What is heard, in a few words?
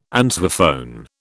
"answerphone", British